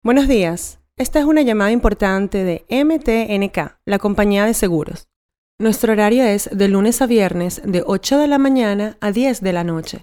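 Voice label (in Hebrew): Spanish Venezuela, קריינות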